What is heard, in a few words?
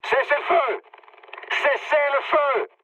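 French player friendly fire mood med; War Thunder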